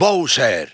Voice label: Spanish Announcer; SSBB